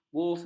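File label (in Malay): "bufet", Malay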